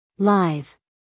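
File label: "live", American English, female; 1000 hours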